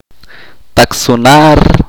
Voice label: "empujar", chorti

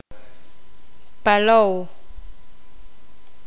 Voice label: poqomam

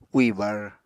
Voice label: "úì bar", Apatani

